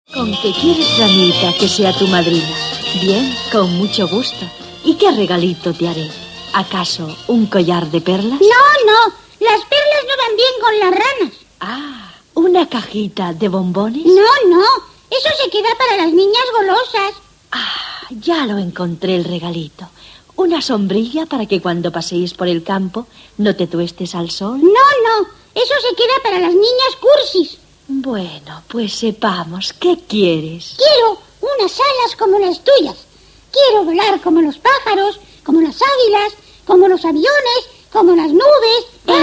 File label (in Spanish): Una tarde en jauja Radio Miramar